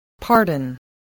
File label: "pardon", English, voice